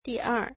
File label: second, mandarin